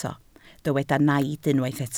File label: "naid", Welsh